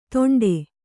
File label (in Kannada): "ದೊಂಡೆ", kannada